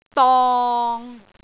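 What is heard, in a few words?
"dong6", Cantonese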